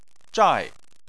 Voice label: "zhai4", Chinese

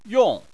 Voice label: "yong4", Chinese

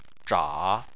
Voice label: "zha3", Chinese

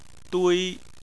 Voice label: "dui1", Chinese

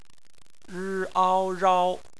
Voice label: "rao", Chinese phonetics

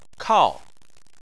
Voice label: "kao4", Chinese

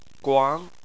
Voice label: "guang2", Chinese Phonetics

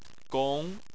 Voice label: "gong2", Chinese

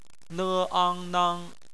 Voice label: "nang", Chinese; bpmf

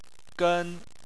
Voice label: "gen1", Chinese

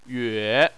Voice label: "yue3", Chinese

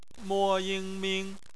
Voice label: "ming", Chinese; phonetics

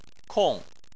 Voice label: "kong4", Chinese

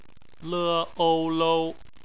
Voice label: "lou", Chinese; phonetics

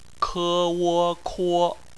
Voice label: "kuo", Chinese; phonetics; bpmf

